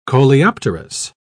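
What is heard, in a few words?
"coleopterous", British English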